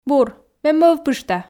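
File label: "бур ме мӧвпышта", Komi, female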